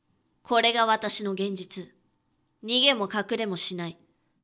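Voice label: female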